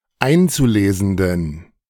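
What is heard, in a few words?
"einzulesenden", German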